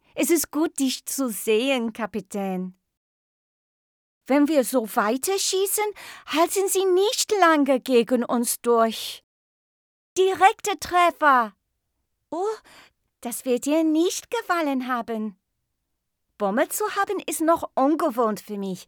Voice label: german commercial, german voice actors